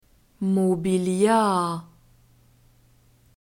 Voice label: "mobiliar", german; neuter